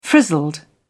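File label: "frizzled", English, British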